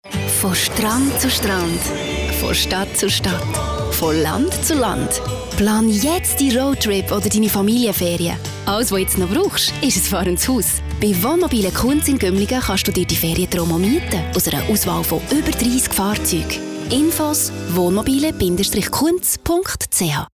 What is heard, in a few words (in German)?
Werbung CH BE